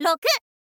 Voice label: "roku", girl; voice; info girl